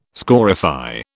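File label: "scorify", British English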